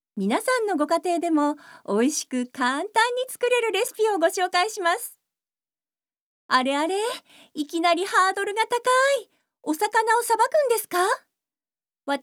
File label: Narration